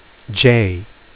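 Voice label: name "j", 英語アルファベットの文字の名前; phonics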